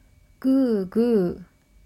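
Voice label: "guu guu", Japanese; グーグー – guu guu; Snoring really loudly.; manga onomatopoeia sound effects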